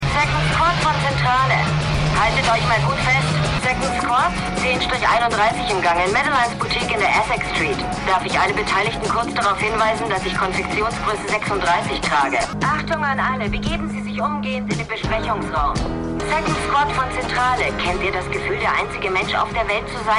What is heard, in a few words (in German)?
Disponentin; The Unusuals